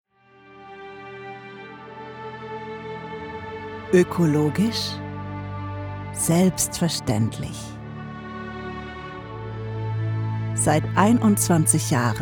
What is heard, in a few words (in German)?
Imagefilm; Demo Klimastrom